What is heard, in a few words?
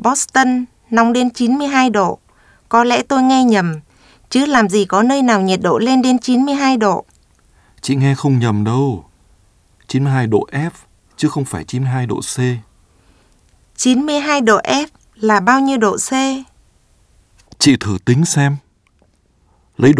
Dialog